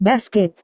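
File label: "basket", Indonesian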